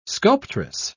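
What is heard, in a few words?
"sculptress", English, British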